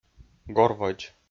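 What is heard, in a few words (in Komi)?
"горводз", Komi-Zyrian, male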